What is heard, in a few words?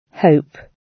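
"hope", British English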